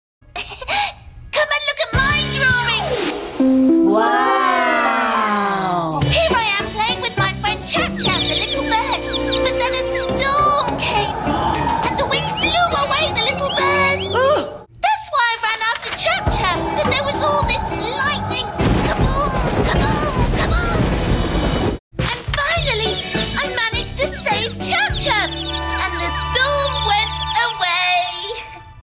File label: character, English UK, female